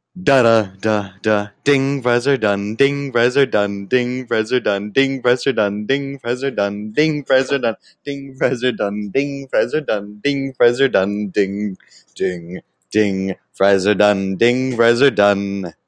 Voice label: voice message